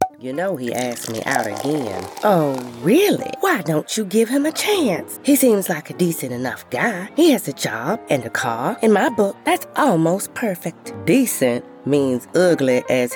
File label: Character Sample